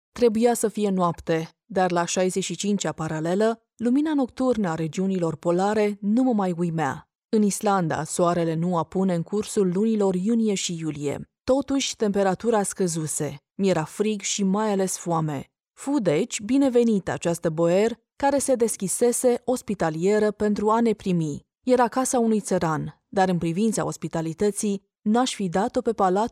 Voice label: female romanian voice over narrative